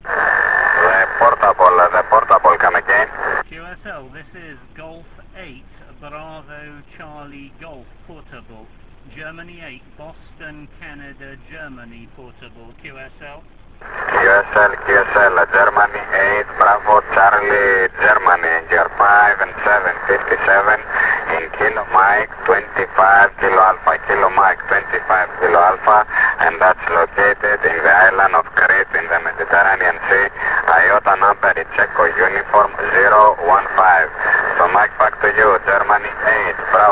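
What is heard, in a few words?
amateur radio contact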